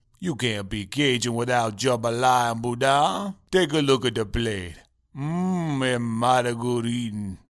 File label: Cajun